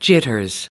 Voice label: "jitters", us